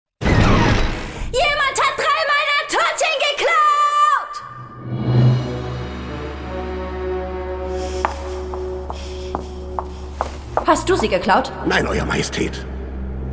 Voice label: german impersonations; german voice actors